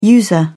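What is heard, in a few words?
"user", British English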